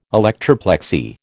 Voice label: "electroplexy", American